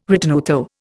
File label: "ritenuto", Indian